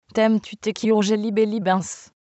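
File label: French female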